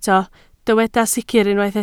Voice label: "sicr", Welsh

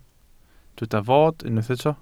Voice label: "fod", Welsh

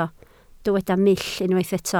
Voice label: "mull", Welsh

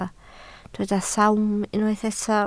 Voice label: "sawm", Welsh

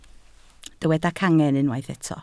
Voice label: "cangen", Welsh

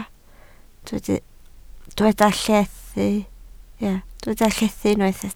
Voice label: "llethu", Welsh

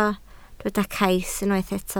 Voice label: "cais", Welsh

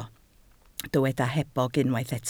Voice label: "hebog", Welsh

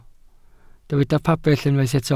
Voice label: "pabell", Welsh

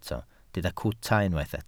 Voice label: "cwta", Welsh

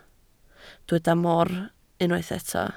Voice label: "mor", Welsh

